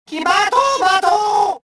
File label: cover, rodian